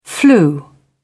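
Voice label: "flu", British English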